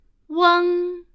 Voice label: "ueng", Chinese